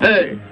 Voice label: "hey" male; ooh ahh

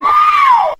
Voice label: "ow" nongender

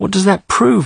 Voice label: what does that prove, male; questions

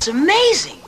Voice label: "amazing", voice

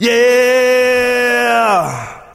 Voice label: "yeah", long

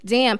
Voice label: "damn", expletives

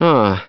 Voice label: "uh", male

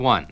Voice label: "one" announcer; numbers and letters

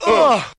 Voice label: "uh" group of men; ooh ahh; uh um

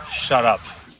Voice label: shut up male; Voice; expletives

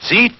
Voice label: announcer

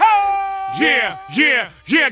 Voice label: "ho yeah yeah yeah", voice; ooh ahh

